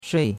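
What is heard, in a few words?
"shui4", Mandarin Chinese; Cours-de-Chinois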